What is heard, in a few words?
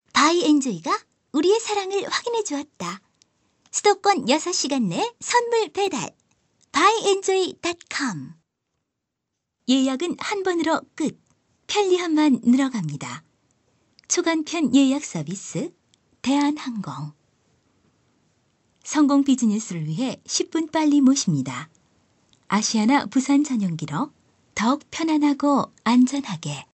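Korean female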